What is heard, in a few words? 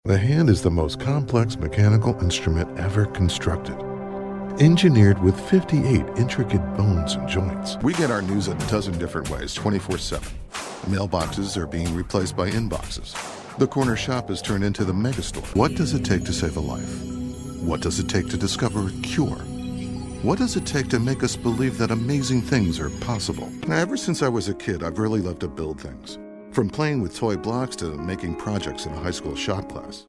commercial